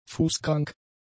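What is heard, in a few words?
"fußkrank", German; adjectives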